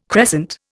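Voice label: "crescent", Indian English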